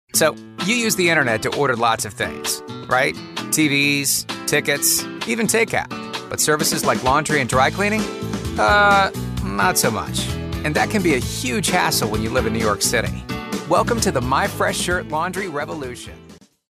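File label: American English, male, explainer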